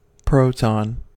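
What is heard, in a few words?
"proton", US English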